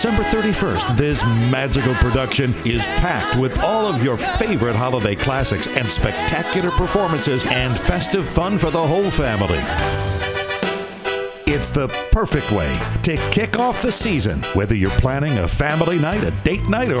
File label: Christmas Show voice; Alabama Theatre at Barefoot Landing